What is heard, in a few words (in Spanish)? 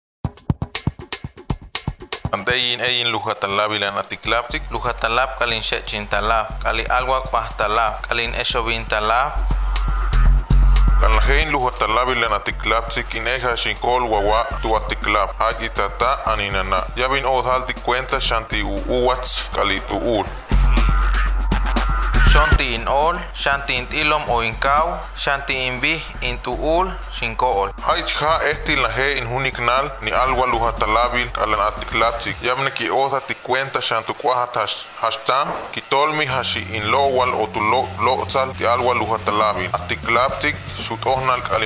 Lengua Teenek; FI Tantoyuca; Derechos Humanos y Defensores de DH